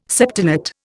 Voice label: "septenate", Indian